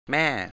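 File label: "แม่" Thai, male; 'mother'; mæ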